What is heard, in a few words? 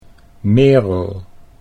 "Merel", Dutch